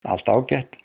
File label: fine, icelandic; Allt ágætt; Everything okay